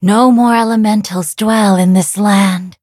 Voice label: levelup en; cookie run